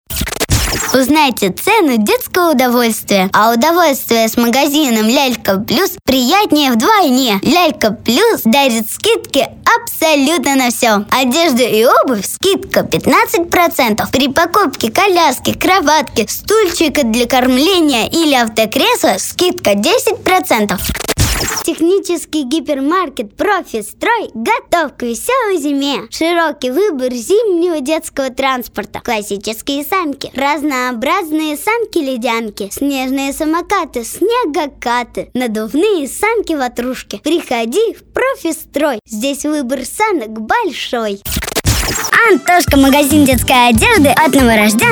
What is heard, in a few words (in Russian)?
детский голос